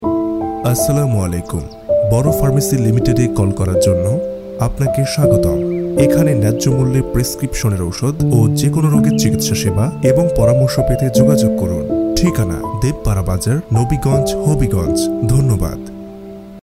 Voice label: Pharmacy caller tune voice; Boro Pharmacy; ফার্মেসি এর নামে কলার টিউন; Impress Voice